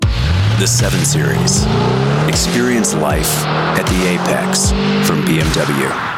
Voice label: Commercial Automotive; BMW